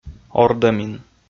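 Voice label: "ордӧмин", Komi, male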